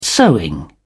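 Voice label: "sewing", British English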